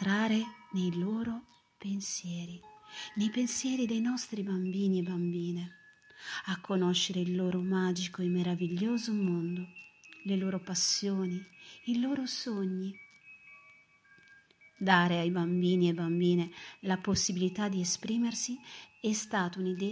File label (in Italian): vocale la bellezza per raccontare storie; didattica a distanza